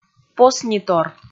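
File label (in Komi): "поснитор" Komi, female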